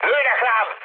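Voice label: Hungarian aircraft damaged mood high, speech; War Thunder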